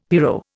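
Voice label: "pyro", Indian English; Dictionary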